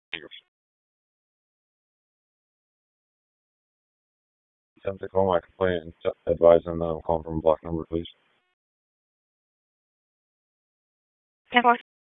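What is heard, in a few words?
Police Downtown District Dispatch, p25; Raleigh; wake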